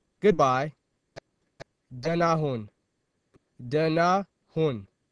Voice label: Amharic Good Bye